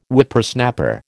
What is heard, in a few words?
"Whippersnapper", American